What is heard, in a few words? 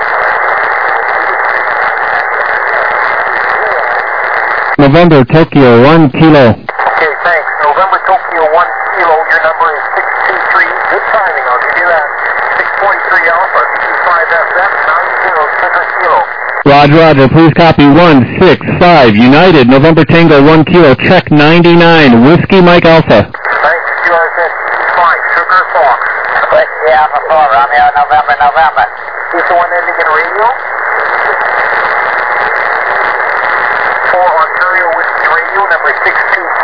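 upper sideband 21MHz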